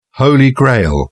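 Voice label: "holy grail", British English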